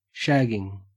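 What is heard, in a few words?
"shagging", Australian English; pronunciations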